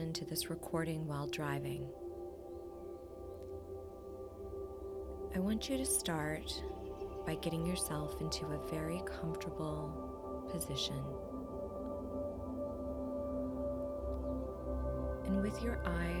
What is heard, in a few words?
Hypnosis Meditation on Anxiety Fear SLEEP EDITION